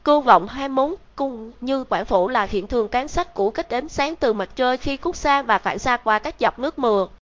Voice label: speaker, vi VN; piper voices; vivos